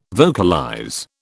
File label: "vocalize", British